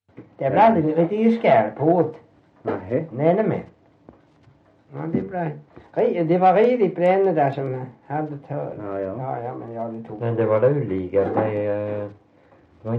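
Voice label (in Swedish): Värö Halland, dialekter; Folkminnen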